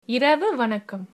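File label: good night, tamil, female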